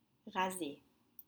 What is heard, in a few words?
"raser", French; shave